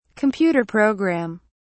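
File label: "computer programme", English, British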